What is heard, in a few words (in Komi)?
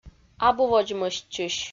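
абу водзмӧстчысь, Komi, female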